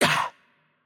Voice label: VO Hurt Light, cs CZ; bloom minigame